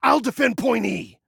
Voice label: DEFEND, Male